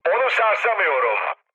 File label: aircraft damaged mood high, speech; War Thunder